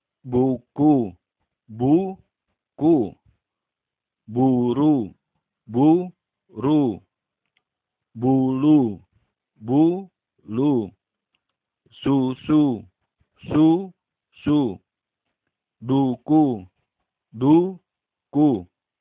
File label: How to pronounce vowel U in Indonesian